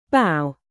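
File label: "produce", verb, British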